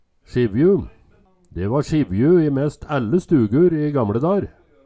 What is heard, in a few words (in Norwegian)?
"sjivju", Numedalsmål; Ledar; Sjivjo vert og bruka.